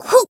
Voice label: attack jp